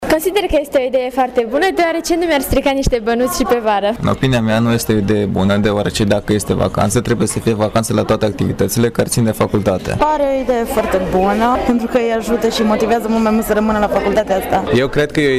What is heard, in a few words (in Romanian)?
voxuri studenti